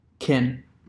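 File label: "can", American English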